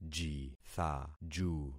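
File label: "jithaju", concatenated syllables; Affixing experiment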